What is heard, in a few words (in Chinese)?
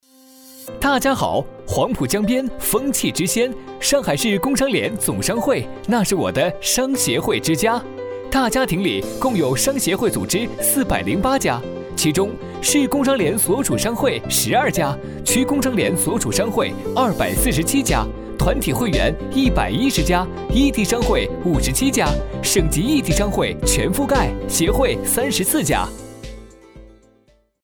男; mg飞碟说; 上海市工商联